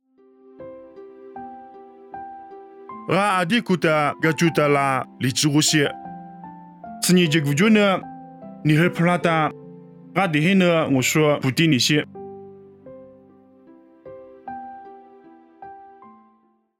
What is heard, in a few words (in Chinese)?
凉山彝语; 地中海部丢利